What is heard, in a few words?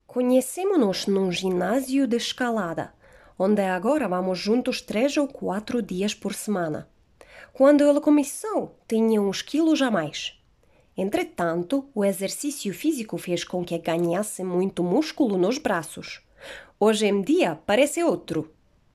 PORTUGUESE